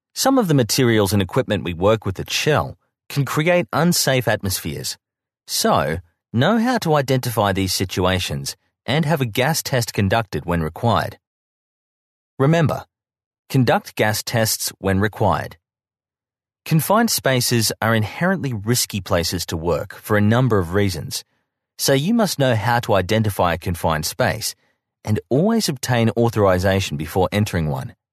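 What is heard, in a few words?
English, Australian, male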